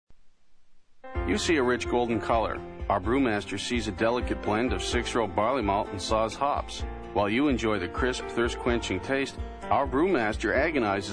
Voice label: Voice Demo